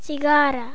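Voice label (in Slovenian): "cigara", Slovenian; ktuberling; tdegames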